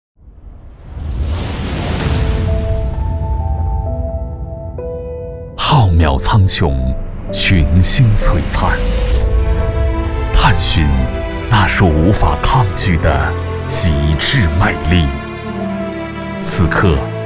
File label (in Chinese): 宣传片; 上海